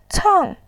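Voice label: "cang", Mandarin Chinese, fourth tone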